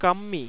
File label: bilabial nasal, malayalam